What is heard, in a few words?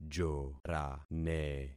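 "jorane", concatenated syllables; Affixing experiment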